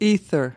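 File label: "ether", American English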